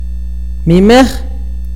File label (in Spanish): "grande", akateko